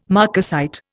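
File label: "marcasite", Indian English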